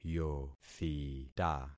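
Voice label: "yothita", concatenated syllables; Affixing experiment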